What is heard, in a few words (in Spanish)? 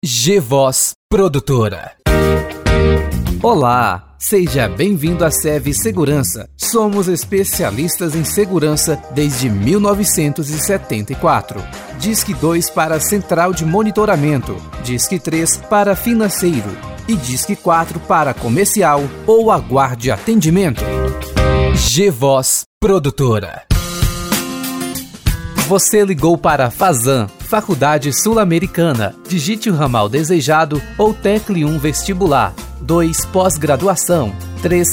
espera telefonica voz locutor